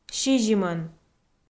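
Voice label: "сизимӧн", Komi, female; kpv audio dict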